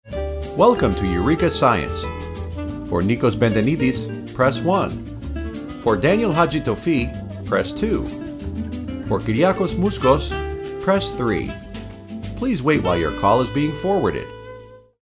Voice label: 外籍英男 IVR 电话应答系统

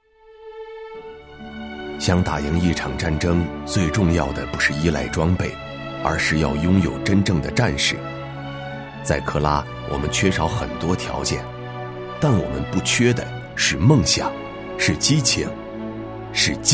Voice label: 男 纪录片

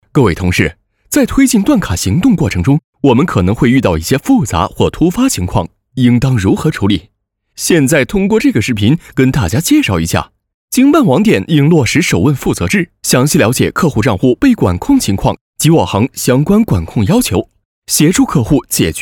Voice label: 男 银行 生动又比较正

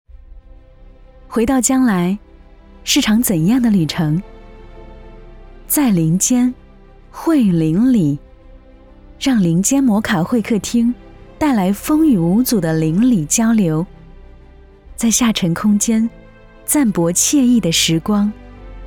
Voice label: 女 专题宣传 亲切

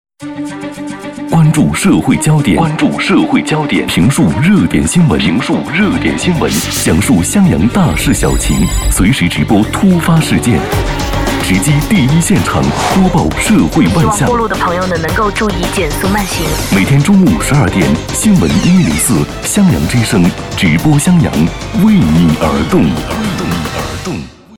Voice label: 男 广告

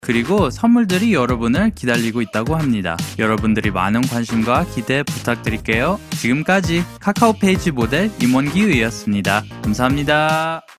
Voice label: 韩语男-活泼-宣传片

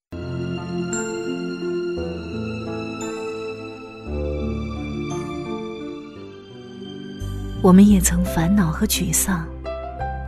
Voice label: 女, 微电影; 同学相聚

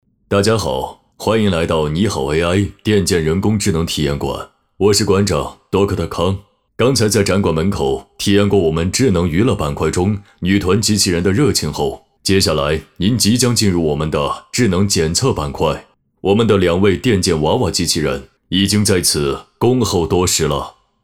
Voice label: 男 机器人声 年轻; 科技馆长

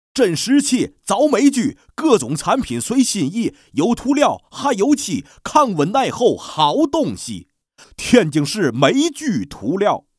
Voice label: 男 广告 天津话广告

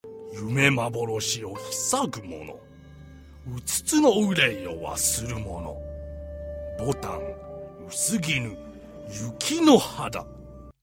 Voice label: 角色模仿, 老人讲述

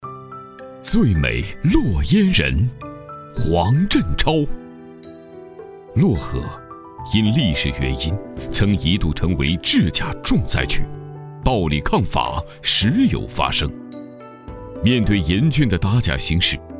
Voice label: 男, 纪录片; 最美漯烟人--黄振超

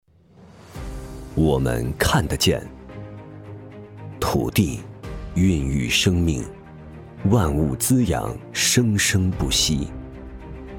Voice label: 男 宣传 大气 磁性 金融峰会宣传片

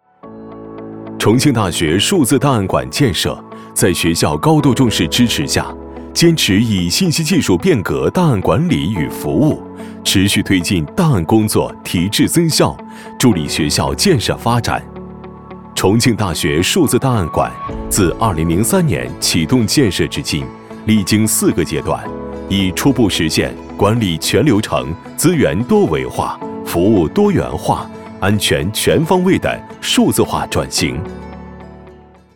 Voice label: 男 专题 档案馆

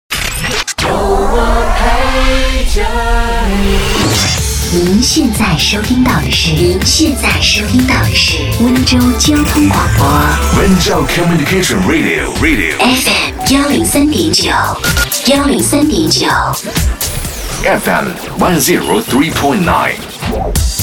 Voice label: 女 交通广播台呼; 温州交通广播

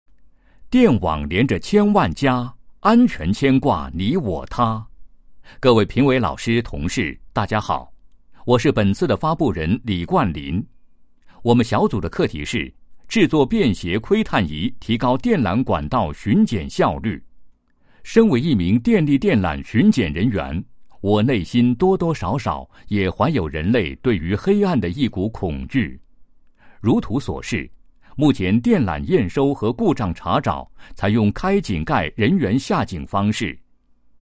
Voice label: 男, 专题; 创意创新成果发布解说词

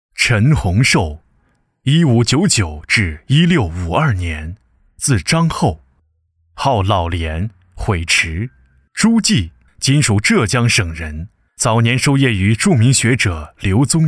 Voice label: 男 稳重讲述 纪录; 画家

